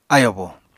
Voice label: "ayobo", Apatani

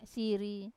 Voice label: "siri", one, Wamesa

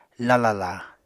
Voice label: "lalala", Apatani

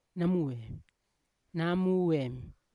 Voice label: "namuem", Yawa; that; unat

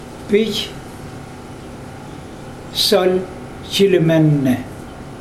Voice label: these three black pigs, mokilese

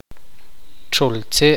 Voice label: "bosque", Tektiteko

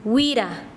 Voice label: Chanka, quechua; grasa

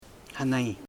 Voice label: "sun", Bugun

